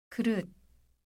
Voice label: bowl, korean